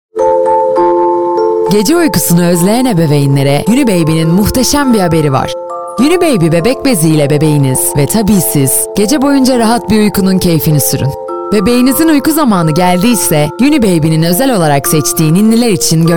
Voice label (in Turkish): reklam; unibaby